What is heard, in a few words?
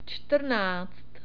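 "čtrnáct", czech; numbers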